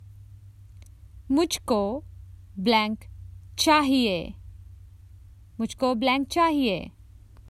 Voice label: Common Hindi Phrases for travel Can I have